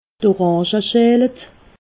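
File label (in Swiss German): "orange", Alsatian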